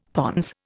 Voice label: "pons", Indian; Dictionary